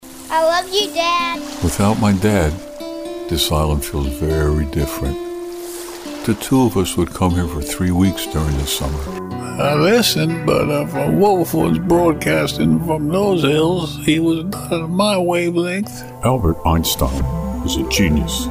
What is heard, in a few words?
audiobook, voiceovers